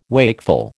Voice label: "wakeful", American

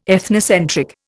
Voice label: "ethnocentric", Indian

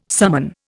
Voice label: "summon", Indian

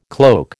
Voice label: "cloak", American

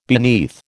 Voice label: "beneath", American

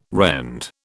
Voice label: "rend", British

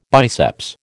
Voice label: "biceps", American

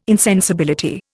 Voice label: "insensibility", Indian

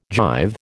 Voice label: "jive", American

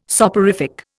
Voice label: "soporific", Indian

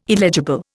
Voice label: "illegible", Indian

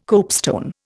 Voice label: "copestone", Indian

